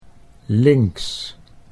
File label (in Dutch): "links", Dutch